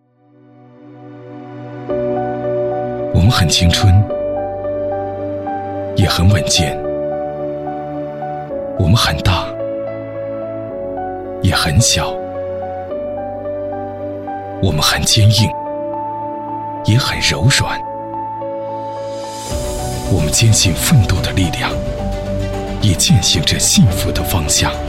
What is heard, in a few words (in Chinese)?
广告; 东风日产